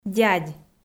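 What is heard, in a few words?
"дядь", Komi, female; kpv audio dict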